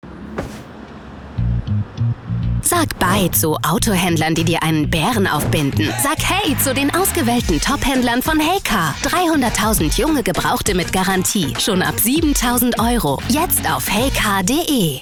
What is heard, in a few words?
tvc; heycar